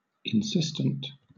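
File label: "insistent", British English; pronunciations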